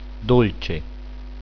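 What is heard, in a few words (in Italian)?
"dolce", Italian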